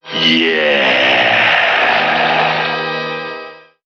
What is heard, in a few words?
"yeah" LONG